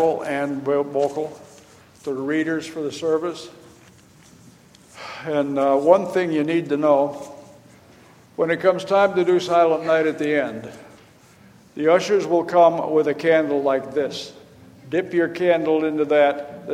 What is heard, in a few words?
Welcome and Announcements; Christmas